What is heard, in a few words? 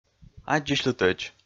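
"аддзысьлытӧдз", Komi, male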